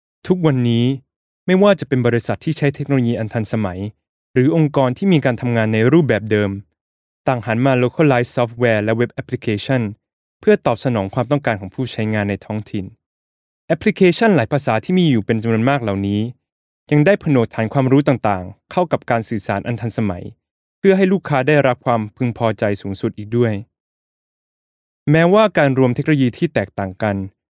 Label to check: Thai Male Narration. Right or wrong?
Right